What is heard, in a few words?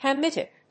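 "Hamitic", English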